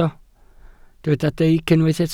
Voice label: "deic", welsh

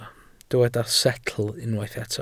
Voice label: "setl", Welsh

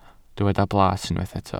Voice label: "blas", welsh

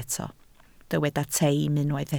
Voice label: "teim", Welsh

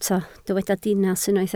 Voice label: "dinas", Welsh

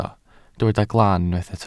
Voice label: "glan", Welsh

